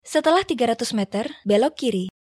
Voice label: Gps Navigator Female